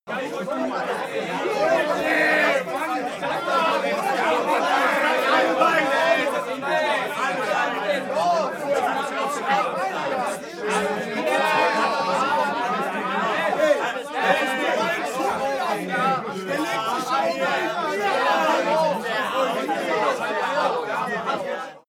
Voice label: talk upset loud long

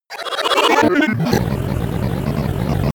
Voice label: glitch talk fx

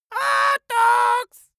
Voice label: dwarf say hot dogs

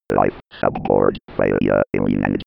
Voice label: 90s computer voice

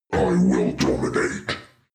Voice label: demon dark voice thick speak i will dominate

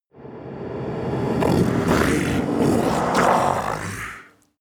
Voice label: demon dark voice reverse obey or die

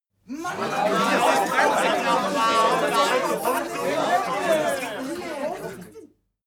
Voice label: talk upset short

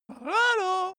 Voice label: cartoon male greet short

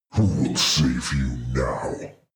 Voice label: demon dark voice thick speak who will save you

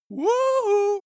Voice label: cartoon male greet

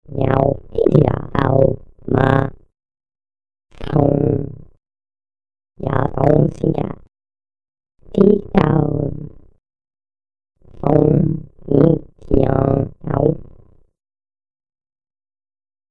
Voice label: alien english phrase

